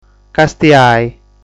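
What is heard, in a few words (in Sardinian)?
"Castiai", Sardinian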